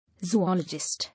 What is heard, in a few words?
"Zoologist", English